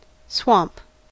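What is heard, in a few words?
"swamp", American English; pronunciations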